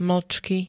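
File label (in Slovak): "mlcky", Slovak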